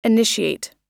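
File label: "initiate", English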